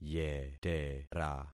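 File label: "yetera", concatenated syllables; Affixing experiment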